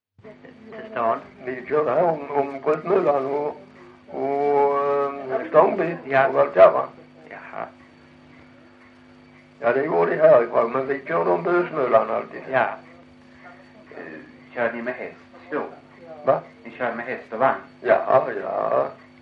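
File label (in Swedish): Lilla Harrie Skåne, dialekter svenska sagor; Folkminnen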